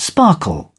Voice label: "sparkle", British